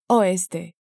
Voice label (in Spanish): "oeste", Spanish